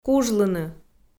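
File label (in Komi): "кужлыны", Komi-Zyrian, female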